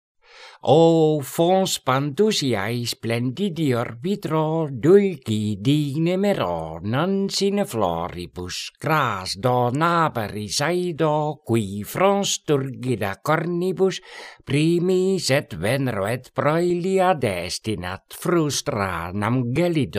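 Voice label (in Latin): Horatii carmina quae voce canora pronuntiat; O fons Bandusiae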